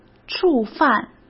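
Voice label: "触犯", Mandarin Chinese; hsk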